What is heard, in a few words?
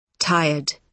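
"tired", British English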